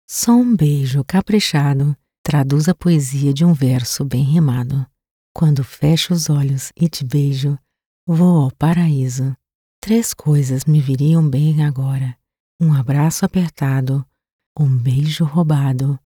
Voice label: portuguese brazilian promos